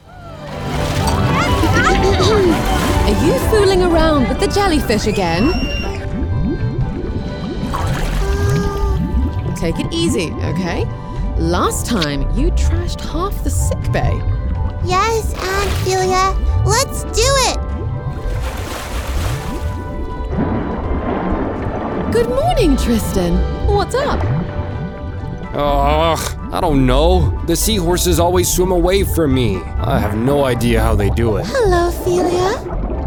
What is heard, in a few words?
English New Zealand animation